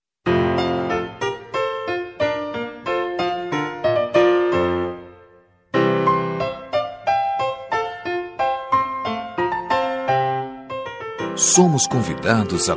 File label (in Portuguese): Feliz Ano Novo, M